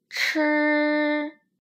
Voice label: "chi", Chinese

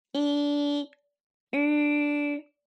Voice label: "iv", Chinese

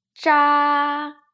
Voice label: "zha", Chinese

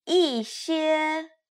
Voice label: "yixie", Chinese

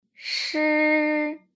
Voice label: "shi", Chinese

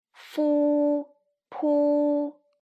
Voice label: "fupu", Chinese

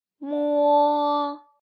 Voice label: "m", Chinese

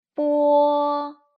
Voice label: "b", Chinese

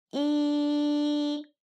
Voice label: "i", Chinese

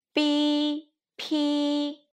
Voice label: "bipi", Chinese

